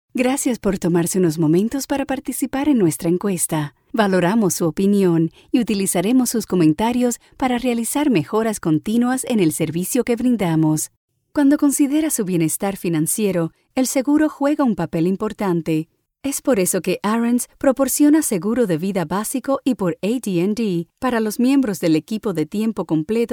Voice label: Spanish eLearning